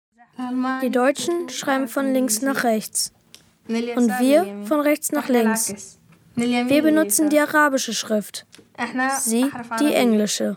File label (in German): syrisch